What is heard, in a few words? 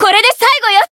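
finish, Japanese; anaberu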